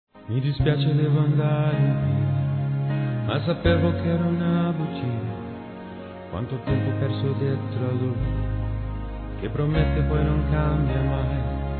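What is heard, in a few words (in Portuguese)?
Telemensagens, Feminino; HOMENAGEM AO AMIGO(A); Amizade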